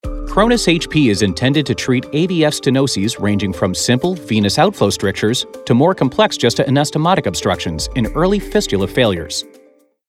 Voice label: articulate; nipro cronus hp